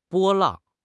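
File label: "波浪", Mandarin Chinese; HSK; HSK6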